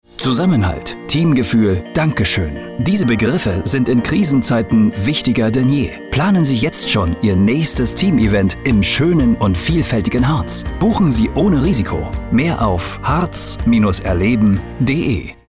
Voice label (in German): Radiospot; Studio D4 - Harz erleben 15 Sek